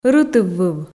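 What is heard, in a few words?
"рытыввыв", Komi, female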